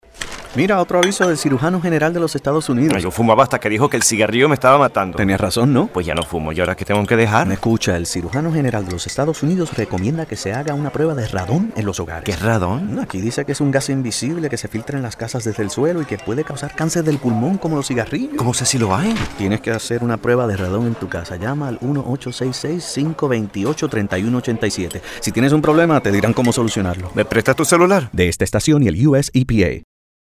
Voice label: radon radio psa spanish; dph